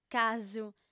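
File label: "casu", female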